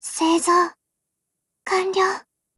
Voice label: BUILD OVER JP; GF Alarm; M4A1